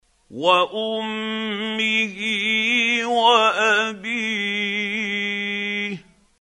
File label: quran, Hussary, tafseer; Abasa